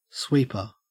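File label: "sweeper", Australian English; pronunciations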